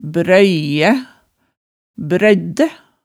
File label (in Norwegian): "brøyæ, brøyddæ", hemsedalsmaalet tale